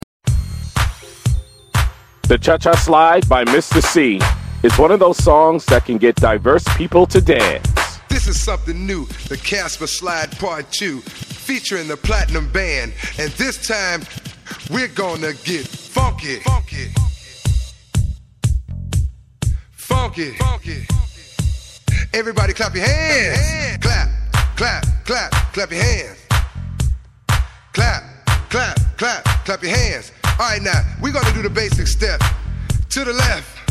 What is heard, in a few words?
voiceover music